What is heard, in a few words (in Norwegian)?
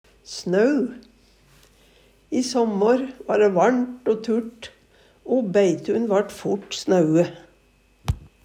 "snau", Numedalsmål; Adjektiv